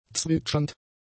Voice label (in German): "zwitschernd", German; adjectives